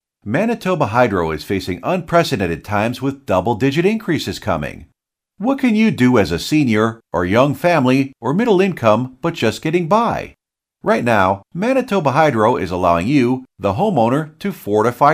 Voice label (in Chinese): 外籍男英 外语 美式英语 样音